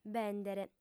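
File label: "bèndere", female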